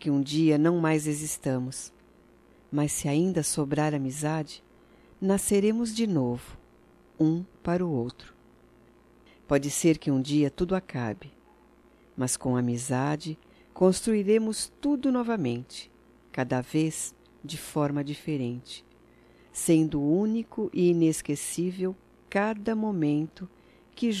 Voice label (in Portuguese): DIA DO AMIGO F; Datas Mistas; Telemensagens 2017